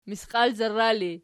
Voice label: "misqialzarra", Archi

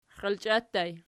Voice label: xialchia ergpl, archi

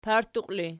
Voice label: "partuqi", Archi

